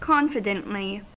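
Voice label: "confidently", American English; pronunciations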